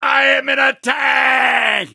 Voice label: soldier tank warcry; video games; source engine; tf; taunts

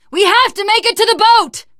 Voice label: arrive boat, teen girl, survivor voice

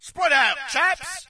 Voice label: brit spead out; player; Source Engine; dod

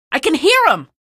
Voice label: alert antlions, female; video games; npc; source engine; episodic; episode 1

